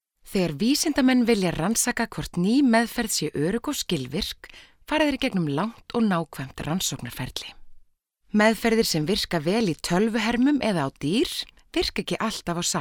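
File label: Icelandic, female; voice over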